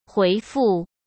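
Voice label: "回复", Mandarin Chinese; chinese-flashcards; hsk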